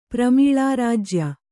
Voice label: "ಪ್ರಮೀಳಾರಾಜ್ಯ", kannada

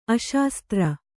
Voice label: "ಅಶಾಸ್ತ್ರ", Kannada

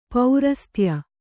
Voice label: "ಪರಸತ್ಯ", kannada

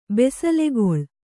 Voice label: "ಬಿಸಿಲುಗೋಲು", Kannada

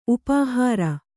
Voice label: "ಉಪಹಾರ", Kannada

